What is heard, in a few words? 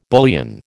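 "bullion", American English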